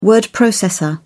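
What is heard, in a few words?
"word processor", British English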